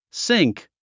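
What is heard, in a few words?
"sink", English; síŋk スィンク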